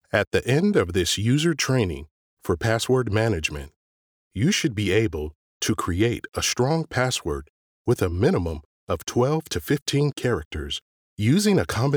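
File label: E-Learning, Voiceover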